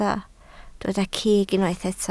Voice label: "cig", Welsh

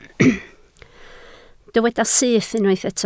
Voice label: "syth", Welsh

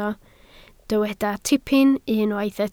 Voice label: "tipyn", Welsh

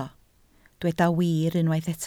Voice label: "wir", Welsh

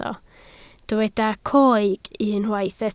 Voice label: "coeg", Welsh

